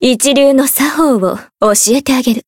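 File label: Voice encounter, Japanese; hisumena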